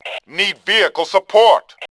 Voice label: need support, Male; Tribes2